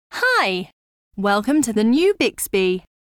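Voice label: onboarding provision greeting, British English; Samsung Galaxy S10; Bixby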